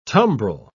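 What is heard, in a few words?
"tumbril", British English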